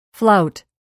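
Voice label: "flouting", English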